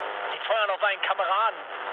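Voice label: German player friendly fire mood low, speech; War Thunder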